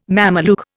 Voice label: "Mameluke", Indian

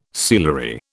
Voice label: "sealery", British

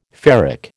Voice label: "ferric", American

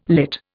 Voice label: "lit", Indian

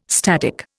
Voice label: "static", Indian

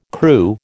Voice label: "Kroo", American

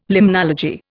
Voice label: "limnology", Indian

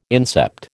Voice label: "incept", American

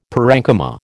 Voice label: "parenchyma", American

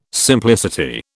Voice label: "simplicity", British

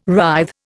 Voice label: "writhe", Indian

